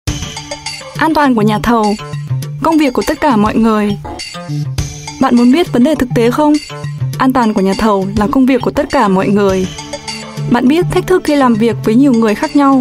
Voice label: Vietnamese Female North Accent